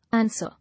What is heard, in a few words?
"Answer", English